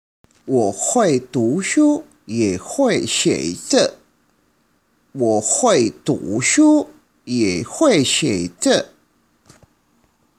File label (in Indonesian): Percakapan; 阅 读 – Membaca